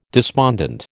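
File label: "despondent", American English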